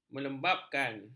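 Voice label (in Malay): "melembapkan", Malay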